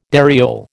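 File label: "dariole", American English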